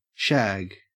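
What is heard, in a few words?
"shag", Australian English; pronunciations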